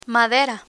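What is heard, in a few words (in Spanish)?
"madera", Spanish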